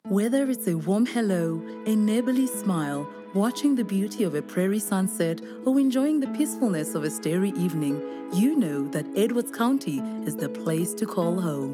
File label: ENG, voice